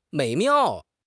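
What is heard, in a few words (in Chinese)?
"美妙", Chinese; HSK6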